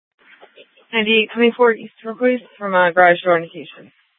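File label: Police Department North Dispatch, scanner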